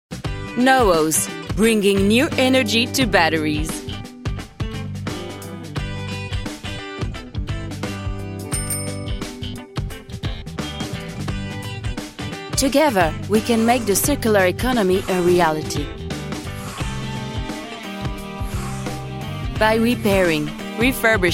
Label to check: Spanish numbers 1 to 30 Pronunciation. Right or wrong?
Wrong